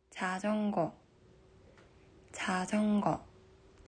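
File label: "自転車", Japanese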